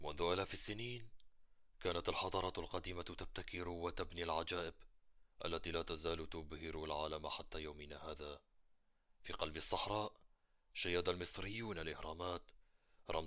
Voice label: Documentary